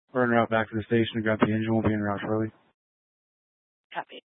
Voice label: Fire Department Dispatch, scanner; Grand Junction